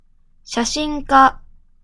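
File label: "写真家", Japanese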